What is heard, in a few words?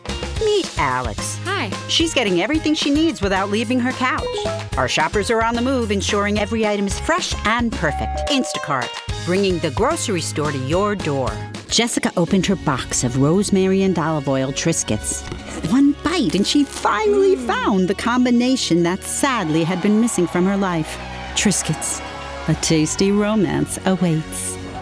COMMERCIAL ONLINE AD